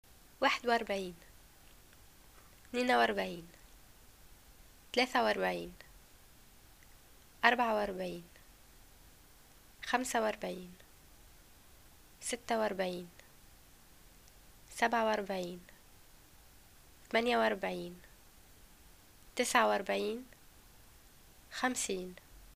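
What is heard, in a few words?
"numbers 41 50", Tunisian Arabic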